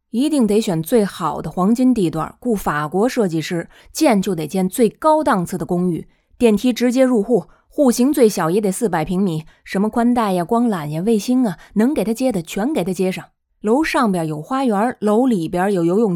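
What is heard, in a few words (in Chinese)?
削微北京腔经典台词; 大腕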